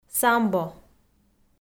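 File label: "самбо", Komi, female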